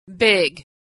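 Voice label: "big", American English; vowel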